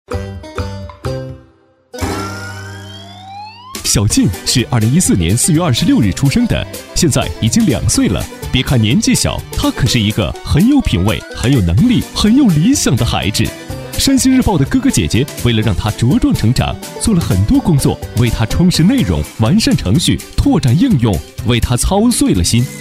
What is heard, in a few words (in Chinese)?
男, 动画, 阳光快速; 偏飞碟说; 山西日报新闻客户端; 小晋; 诞生